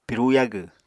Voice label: "piru yagw", Apatani; Household Items